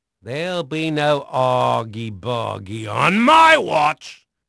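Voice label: English male; Pirates Of The Caribbean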